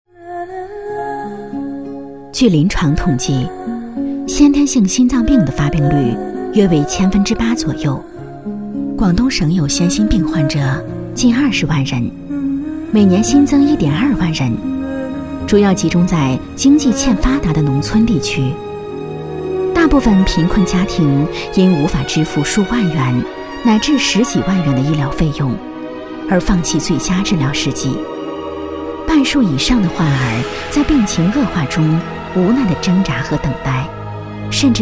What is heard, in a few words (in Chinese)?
女 专题; 一心公益基金会